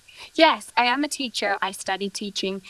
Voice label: Voice Acting, es, F0

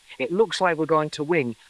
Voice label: Voice Acting, es

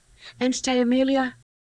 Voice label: Voice Acting es

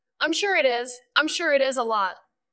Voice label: VoiceActing es F

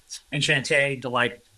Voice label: Voice Acting es M

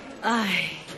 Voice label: Voice Acting es F

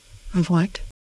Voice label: Voice Acting es

